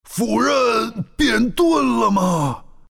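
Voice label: death, Chinese; dota2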